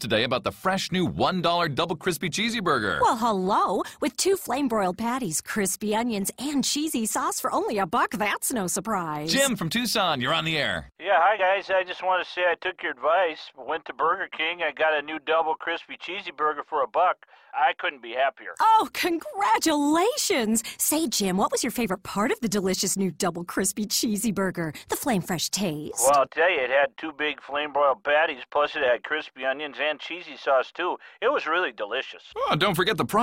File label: Radio, voice over; Burger King